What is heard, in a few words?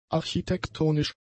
"architektonisch", German; adjectives